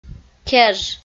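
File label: "кеж", Komi, female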